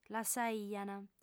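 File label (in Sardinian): "lassaiant", Sardinian, female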